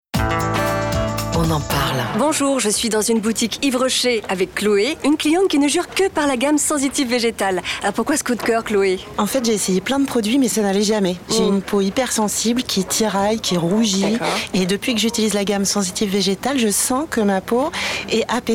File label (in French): Chroniqueuse; YVES ROCHER